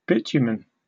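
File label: "bitumen", British English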